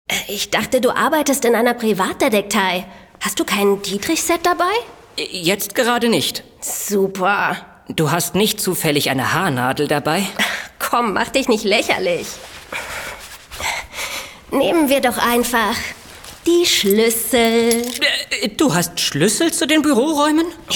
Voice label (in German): Hoerspiel; Die Drei Senioren